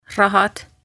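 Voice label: "Rahad" Estonian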